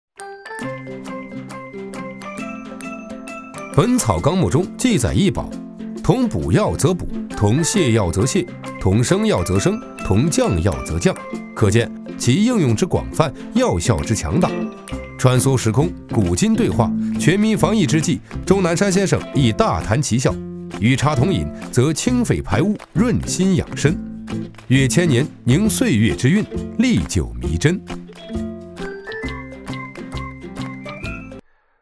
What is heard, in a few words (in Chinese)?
男, MG 生活 理性; 历久弥珍